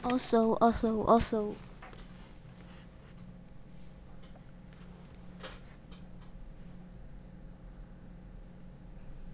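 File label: "also", spoken word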